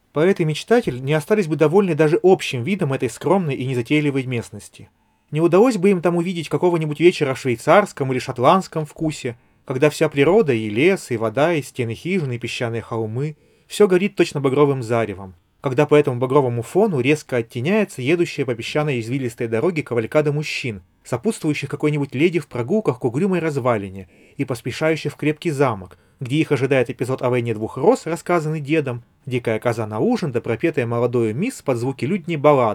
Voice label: Recorder; Запись на профессиональный рекордер